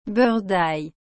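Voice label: "beurre dail", French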